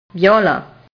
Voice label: "viola", italian